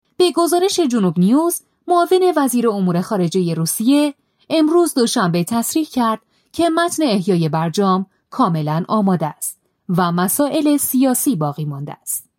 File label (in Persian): Farsi News